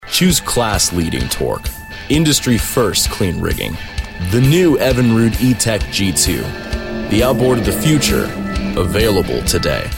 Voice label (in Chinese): 外籍英男-大气宣传片